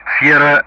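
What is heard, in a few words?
Speech Sound Russian; DCS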